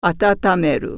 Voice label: "warmup", Japanese